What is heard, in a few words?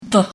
"daad", Arabic